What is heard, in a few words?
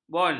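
"bon", Malay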